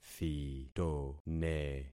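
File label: "thitone", concatenated syllables; Affixing experiment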